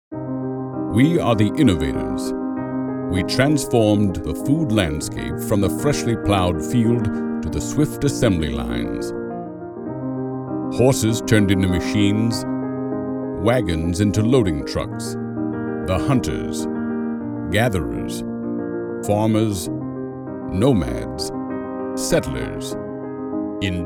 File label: James Earl Jones Style